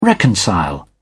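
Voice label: "reconcile", British English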